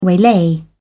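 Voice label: "waylay", British English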